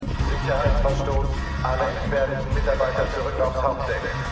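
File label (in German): Männliche Computerstimme; The 100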